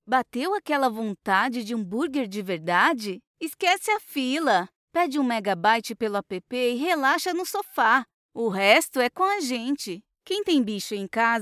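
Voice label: Conversational Relaxed Casual, Brazilian Portuguese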